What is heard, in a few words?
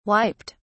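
"wiped", British English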